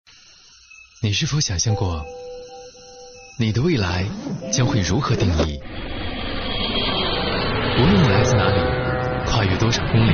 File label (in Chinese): 男, 招生宣传片; 集美大学招生